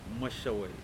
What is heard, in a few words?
"garden", Pingelapese